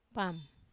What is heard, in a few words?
"pam", Wamesa